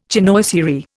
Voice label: "chinoiserie", Indian

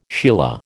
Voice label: "sheila", American

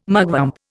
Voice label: "mugwump", Indian

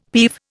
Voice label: "peeve", Indian; Dictionary

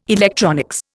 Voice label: "electronics", Indian

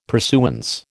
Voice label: "pursuance", American

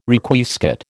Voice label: "Requiescat", American

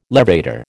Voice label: "levator", American

